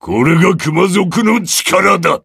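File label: victory, Japanese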